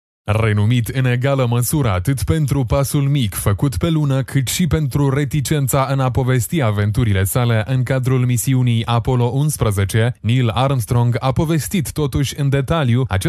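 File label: male narration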